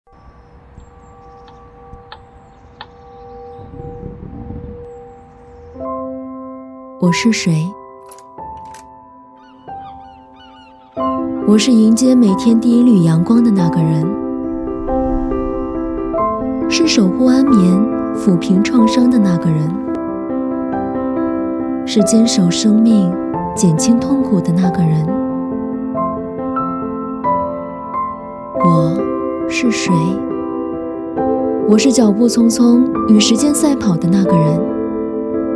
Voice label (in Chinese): 女-走心旁白-医护; 我是谁